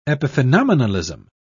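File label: "epiphenomenalism", British English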